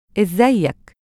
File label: "izzaayak", Egyptian Arabic